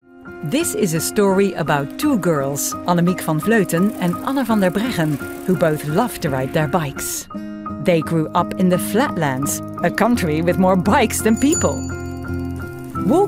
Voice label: European EN F Corporate, accent of the netherlands